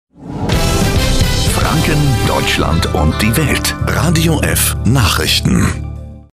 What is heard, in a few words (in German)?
stationvoice Nachrichten; RADIOF